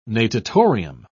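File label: "natatorium", British English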